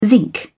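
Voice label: "zinc", British English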